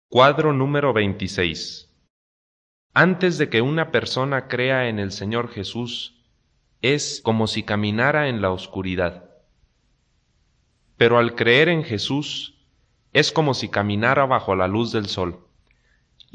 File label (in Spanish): good news spanish mexico